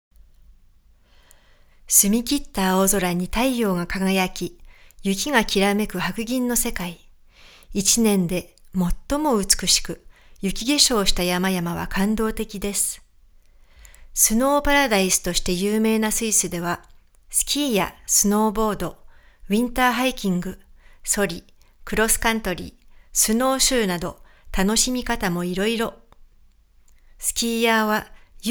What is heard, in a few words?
Voice Over JP sample Tourism